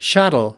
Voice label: "shuttle", us